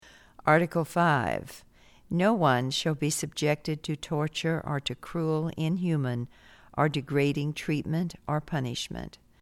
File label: English